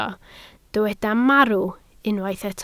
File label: "marw", Welsh